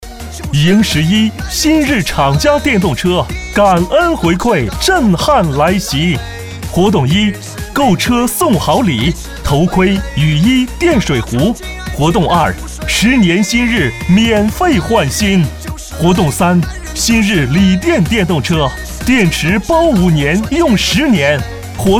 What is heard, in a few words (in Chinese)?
男, 促销; 新日车行